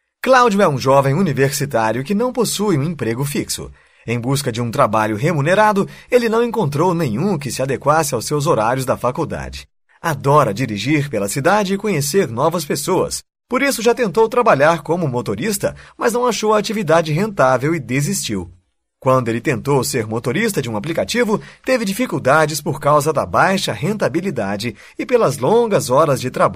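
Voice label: Portuguese, general; GoLocalise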